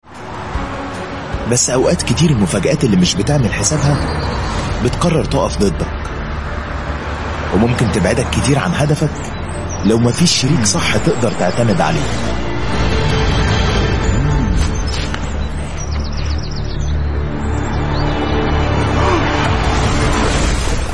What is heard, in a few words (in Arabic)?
Arabic animation